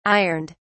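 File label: "ironed", British English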